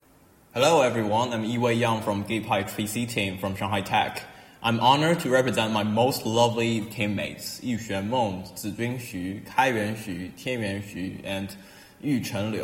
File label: Voiceover; ClusterComp 2020